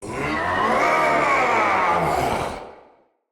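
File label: Battle Cry, Battle Barks, Dialog, English; Nimrod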